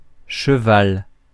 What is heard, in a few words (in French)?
"cheval", français